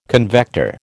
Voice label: "convector", American